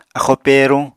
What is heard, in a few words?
"axóo pèeruñ", apatani